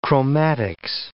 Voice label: "chromatics", English